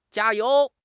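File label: "jia1 you2", Chinese; 汉语口语新时代-初级下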